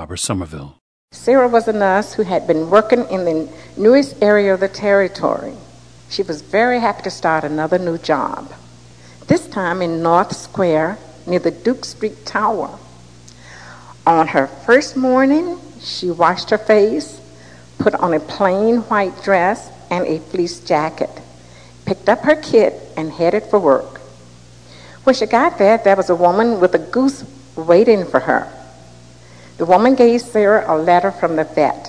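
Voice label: alabama, International Dialects of English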